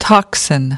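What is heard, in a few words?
"toxin", English word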